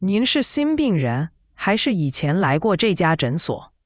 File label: question mandarin